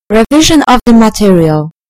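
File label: "revision", British English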